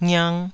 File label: "ngiang2", Taiwanese